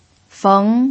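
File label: "feng", second tone, Mandarin Chinese pinyin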